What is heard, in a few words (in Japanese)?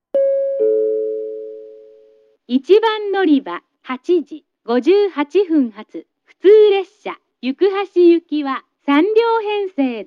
announce; jrkyushu; yukuhashi